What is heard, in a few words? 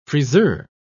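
"friseur", British English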